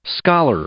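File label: "scholar", English, male; noun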